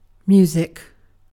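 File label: "music", British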